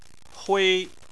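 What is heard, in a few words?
"hui", Chinese, first tone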